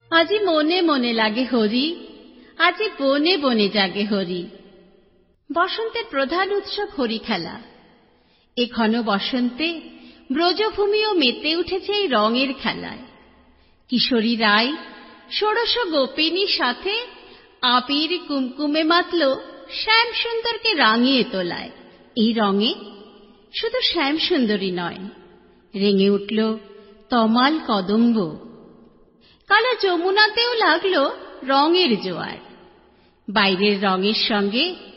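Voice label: narration bengali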